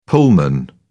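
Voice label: "pullman", British English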